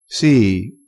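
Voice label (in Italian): "sii", vocabolario dialettale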